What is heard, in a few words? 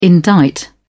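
"indict", British English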